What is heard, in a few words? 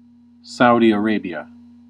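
"Saudi Arabia", US English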